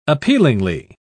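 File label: "appealingly", British English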